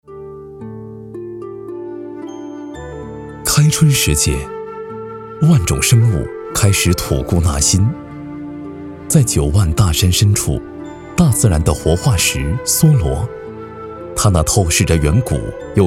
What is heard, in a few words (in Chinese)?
男 纪录片; 梭罗